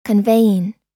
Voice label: conveying, us

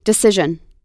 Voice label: "decision", us

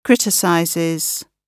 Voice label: "criticizes", gb

